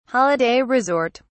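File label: "holiday resort", British English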